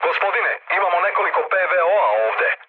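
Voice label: Serbian damaged aa mood low, speech; War Thunder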